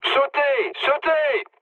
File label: bailout mood low, speech fr; War Thunder